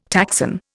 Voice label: "taxon", Indian

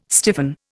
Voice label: "stiffen", Indian

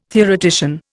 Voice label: "theoretician", Indian

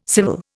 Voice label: "civil", Indian

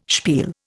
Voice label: "spiel", Indian

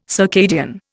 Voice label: "circadian", Indian

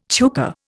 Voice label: "chukar", Indian

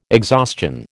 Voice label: "exhaustion", American

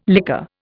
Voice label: "liquor", Indian

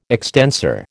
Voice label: "extensor", American

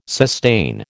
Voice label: "sustain", American

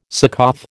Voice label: "Succoth", American

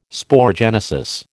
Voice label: "sporogenesis", American

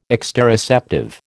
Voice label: "exteroceptive", American

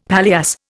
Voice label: "paillasse", Indian; Dictionary

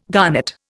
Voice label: "garnet", Indian

